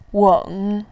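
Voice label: "weng", Mandarin Chinese, tone 3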